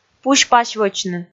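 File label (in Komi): "пусь-пасьвӧчны", Komi, female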